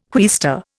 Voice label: "quaestor", Indian

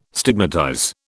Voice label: "stigmatize", British

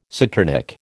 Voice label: "saturnic", American

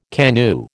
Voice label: "KANU", American

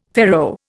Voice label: "faro", Indian

